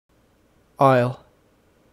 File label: "isle", English